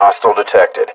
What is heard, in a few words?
alert, combine soldier; npc